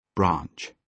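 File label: "branch", British English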